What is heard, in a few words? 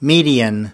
"median", us